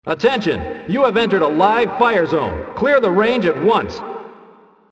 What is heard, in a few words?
tower clear range, tower announcer; mechwarrior4